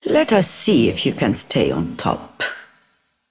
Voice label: Female Broker First Place

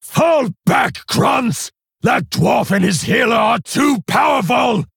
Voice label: Male Orc Victory; Kargal; Mission1 Victory

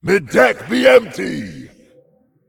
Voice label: Demon Emote No Cards; Patches

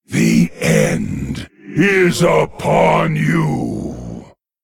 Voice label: Male Lich Attack

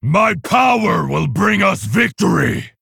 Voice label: Male Orc Bark; Neeru Fireblade

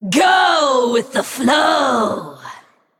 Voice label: Female Naga

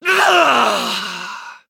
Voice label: Male Night Elf Death

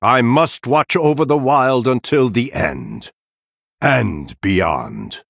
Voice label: Male Night Elf

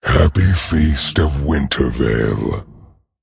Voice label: Male Dragon Happy Holidays

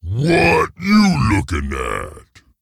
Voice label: Ogre Emote Threaten; Boulderfist

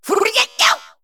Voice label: female mech attack

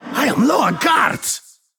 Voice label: Male Ethereal Hero Low Cards

